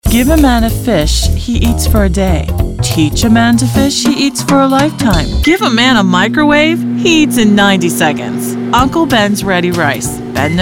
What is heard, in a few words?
vo demo